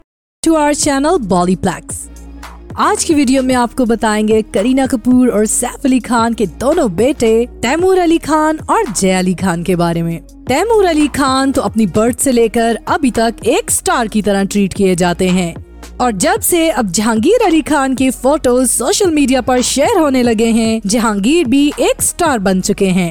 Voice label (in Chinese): 乌尔都语女 外语 小语种